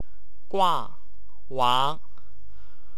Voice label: "uang", Wu Chinese; 光横

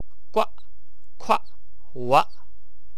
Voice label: "ueq", wu chinese; 骨扩活

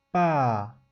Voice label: pa, 吴语; p 这个声母跟t一样是个不送气的清音，国际音标是[p]，等同于法语的p。它是“帮”这个字的声母。

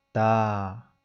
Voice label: da, 吴语; d 这个声母是要重点理解的，它的国际音标是[d]，在吴语拼音中，它表示“夺”这个字的声母，它是个浊音。

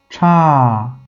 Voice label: "cha", Wu Chinese; romanization

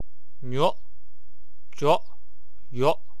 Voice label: "ioq", Wu Chinese; 肉局浴

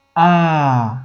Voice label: 'a, 零声母, 吴语; 零声母就是没有声母的声母

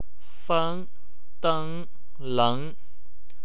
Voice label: "eng", Wu Chinese; 粉等论

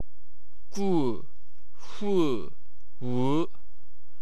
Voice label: "ueu", wu chinese; 官欢换

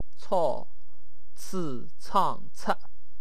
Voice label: "tsh", Wu Chinese; 超吹昌出

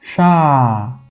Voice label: "sha", Wu Chinese; romanization

